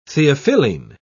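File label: "theophylline", British English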